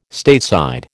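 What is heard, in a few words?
"Stateside", American English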